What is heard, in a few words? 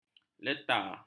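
"leta", Malay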